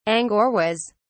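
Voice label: "angoras", British English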